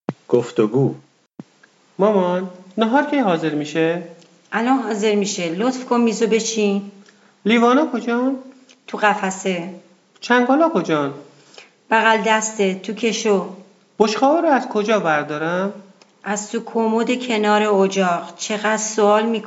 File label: Dialog, persian